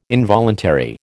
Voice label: "involuntary", American